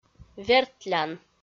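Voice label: "вертлян", Komi, female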